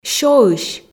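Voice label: "сёысь" Komi, female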